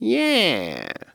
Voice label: "Yeah", Human Male Sleazy

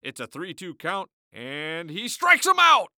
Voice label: Sports Human Voice Male Baseball Announcer

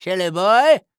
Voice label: Cartoon Voice Elderly Male; Silly Boy; AdobeCC

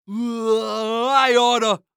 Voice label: Cartoon Voice Why I Oughta Mumble

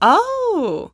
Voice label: Human Female "Ooh I Get It Now" Ooh

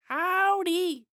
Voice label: Human Cowboy Say "Howdy" Voice

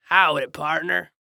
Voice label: Human Cowboy Say "Howdy Partner" Voice

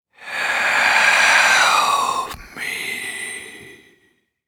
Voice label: Horror Scary Ghost Speak Help Me

